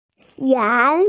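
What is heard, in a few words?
"ran2", Chinese, F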